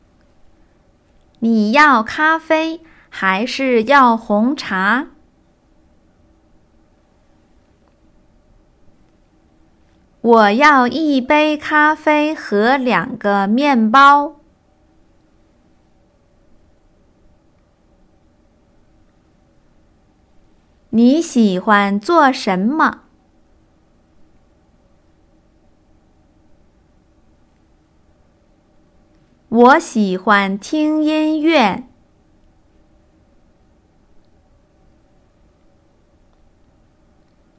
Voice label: Test of Chinese Proficiency